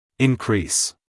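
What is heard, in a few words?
"increase", American English, male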